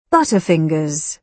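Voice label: "butterfingers", British English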